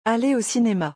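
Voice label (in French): "aller au cinéma", French; vocabulaire; le lexique des vacances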